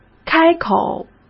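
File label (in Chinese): "开口", Mandarin Chinese; hsk; chinese-flashcards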